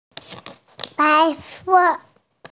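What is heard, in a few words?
"white", hearing impaired; bai2se4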